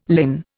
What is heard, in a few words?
"linn", Indian